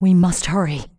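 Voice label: "what", English; Maiev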